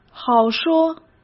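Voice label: "好说", Mandarin Chinese; chinese flashcards; hsk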